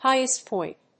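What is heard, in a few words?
"Highest point", English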